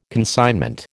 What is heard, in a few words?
"consignment", American